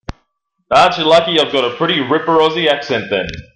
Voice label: Aussie accent